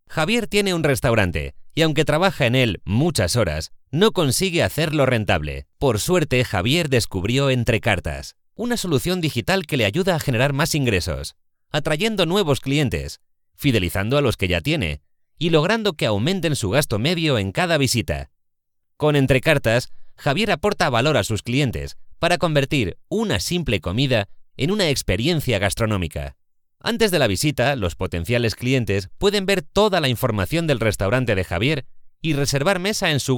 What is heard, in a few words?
spanish corporate videos